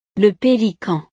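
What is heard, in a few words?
"le pelican", French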